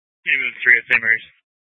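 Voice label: Fire Dispatch, Scanner audio recording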